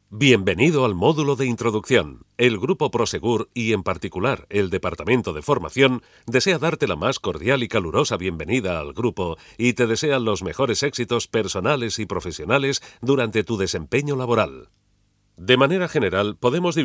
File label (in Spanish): locucion interactiva; locución de documento para proyecto multimedia; prosegur